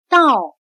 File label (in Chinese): "道", chino